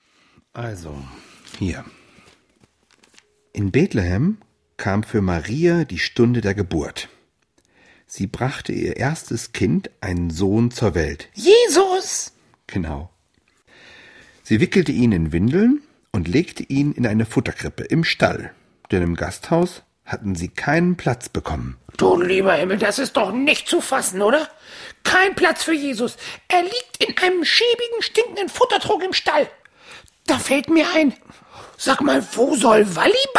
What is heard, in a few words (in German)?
Hoerspiel; Willibald